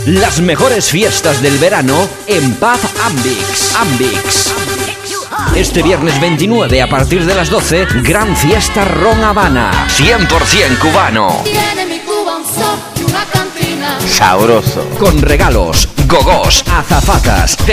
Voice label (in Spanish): COMERCIAL; ambix